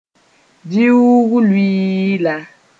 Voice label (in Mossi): "viuug-luiila", moore